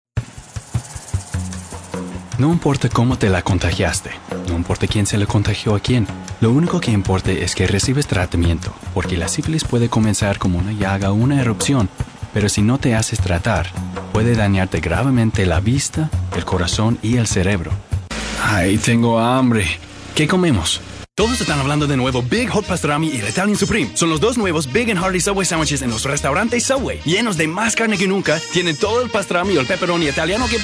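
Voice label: spanish commercial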